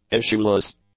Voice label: "issueless", english; adjectives